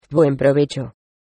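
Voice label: bon appetit, Spanish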